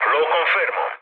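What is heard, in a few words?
Italian player killed enemy mood low, speech; War Thunder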